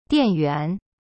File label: "dian4yuan2", Chinese; cyberchinese